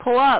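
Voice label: "Pull up", speech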